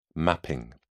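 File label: "mapping", English, British English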